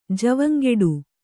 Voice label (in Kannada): "ಜವಂಗೆಡು", Kannada